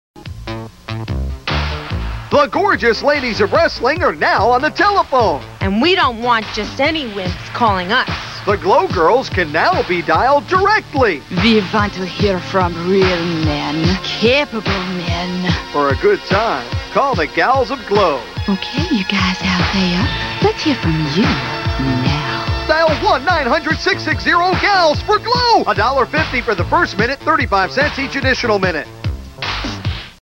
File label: 1-900 Commercial; GLOW 1987; Phone Losers of America Media Pack 2017